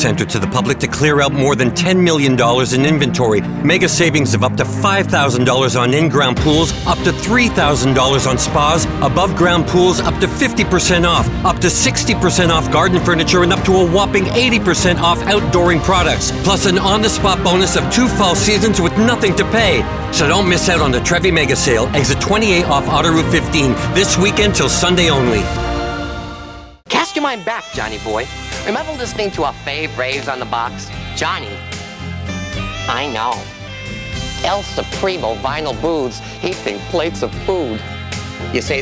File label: Voice Demo